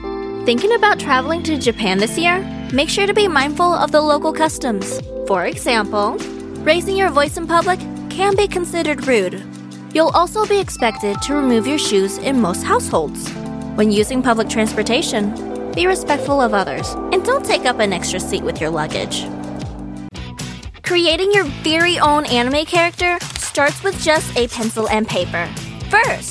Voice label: Narration